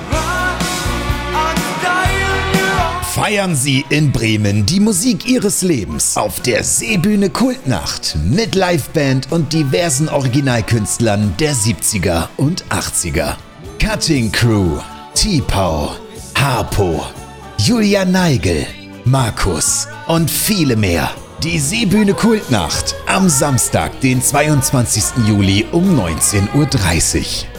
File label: Radiospot; Kultnacht